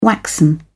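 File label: "waxen", British English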